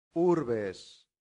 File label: "urbes", Latin; santillana